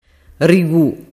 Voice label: "rigu", dialettu

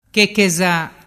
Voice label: "checheza", dialettu

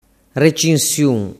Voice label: "recinsiun", dialettu

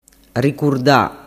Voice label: "ricurda", dialettu

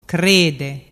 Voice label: "crede", dialettu

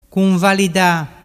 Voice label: "cunvalida", dialettu

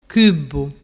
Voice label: "cubbu", dialettu

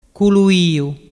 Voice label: "culuiu", dialettu

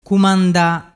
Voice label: "cumanda", dialettu

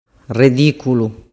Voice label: "rediculu", dialettu

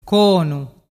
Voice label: "conu", dialettu

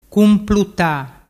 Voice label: "cumpluta", dialettu

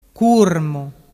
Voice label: "curmu", dialettu

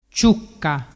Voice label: "ciucca", dialettu

